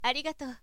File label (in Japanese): "arigato", zyosei